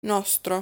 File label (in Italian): "Nostro", Italian